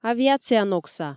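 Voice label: "авиацияногса", Komi, female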